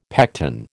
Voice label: "pecten", American

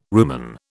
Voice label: "rumen", British

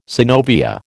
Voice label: "synovia", American

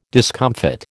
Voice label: "discomfit", American

